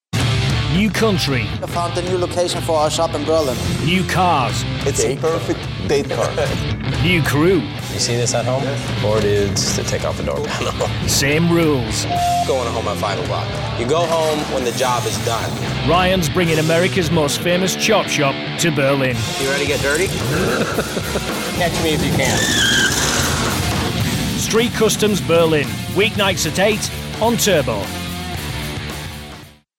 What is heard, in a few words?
PROMO Cool Attitude; Street Customs